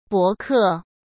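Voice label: "博客", Mandarin Chinese; chinese flashcards; hsk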